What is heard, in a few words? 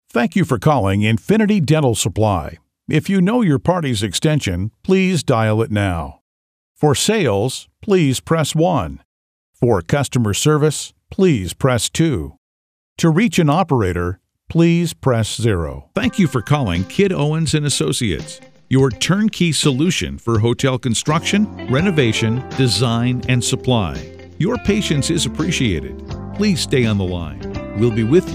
IVR; AdvantageIVR